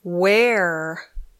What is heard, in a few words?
"where", English